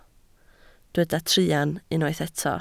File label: "truan", Welsh